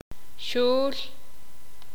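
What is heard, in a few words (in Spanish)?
"chirimía", Sakapulteko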